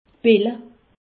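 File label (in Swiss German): "pill", Alsatian; medecine; pillule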